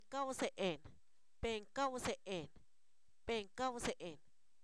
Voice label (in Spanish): Zapoteco, san miguel albarradas; quisquilloso; sustantivos